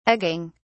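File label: "egging", British English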